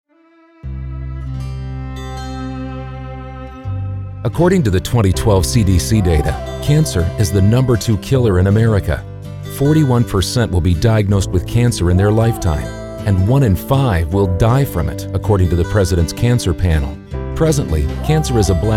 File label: English American